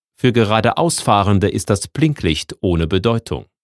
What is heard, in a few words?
voiceover